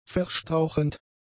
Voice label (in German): "verstauchend", German; adjectives